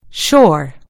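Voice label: "shore", British English; gcompris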